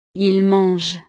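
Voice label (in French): "ils mangent", French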